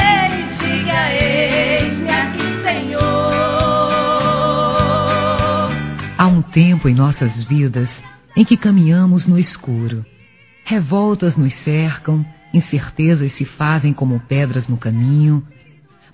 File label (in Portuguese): ANIV. OBREIRO, Gospel, fem; Telemensagens; Telemensagens 2017